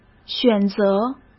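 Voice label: "选择", Mandarin Chinese; hsk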